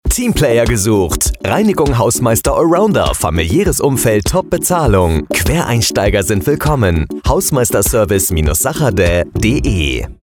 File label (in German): Funkspot; Hausmeisterservice